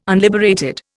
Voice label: "unliberated", Indian